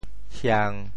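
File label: "hiang1", Teochew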